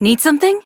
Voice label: Idle Barks, en; Aoi